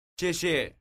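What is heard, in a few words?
"xiexie", Chinese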